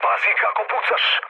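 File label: Serbian player friendly fire mood low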